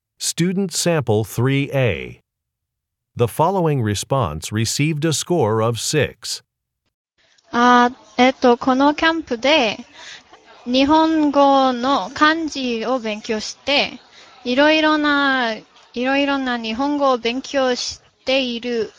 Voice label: japanese conversation